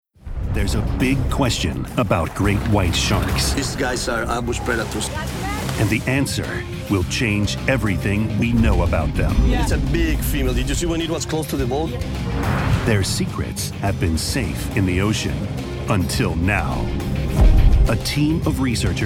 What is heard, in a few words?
Narration